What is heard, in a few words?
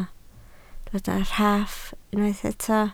"rhaff", Welsh